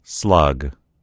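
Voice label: "slug", American English; gcompris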